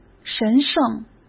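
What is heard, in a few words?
"神圣", Mandarin Chinese; hsk; chinese-flashcards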